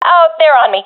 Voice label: takingfire, professional fem; vo; Counter Strike Model Pack; CS:GO